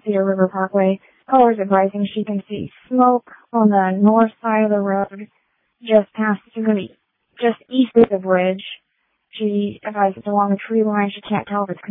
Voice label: Waverly Fire, dispatch